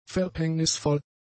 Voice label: "verhängnisvoll", German; adjectives